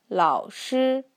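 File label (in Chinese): "laoshi", Mandarin Chinese; 老师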